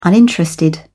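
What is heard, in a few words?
"uninterested", British English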